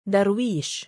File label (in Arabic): "darwiish", male; Arabic; Darwiish、Darwish、Darweeshなど; 貧者；（イスラーム神秘主義、スーフィズムにおける）修道者; given name